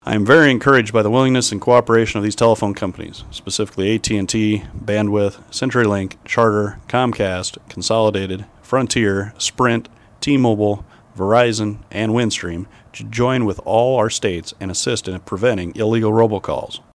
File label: robocall